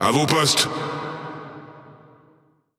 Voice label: Speech Lets Battle, FR; World Of Warships

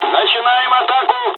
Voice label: dialog, dialogs russian; War Thunder

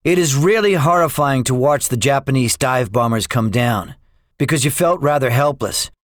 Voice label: debriefing, english; guadalcanal; War Thunder

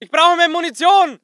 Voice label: voice ger infantry

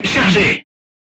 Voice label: voice message charged, crew tanks french

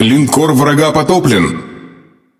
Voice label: Speech Destroy Enemy Battleship, RU; World Of Warships

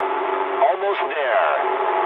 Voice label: eng near waypoint mood low, speech eng

